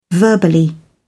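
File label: "verbally", British English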